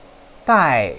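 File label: "dai4", Mandarin Chinese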